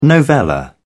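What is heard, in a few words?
"novella", British English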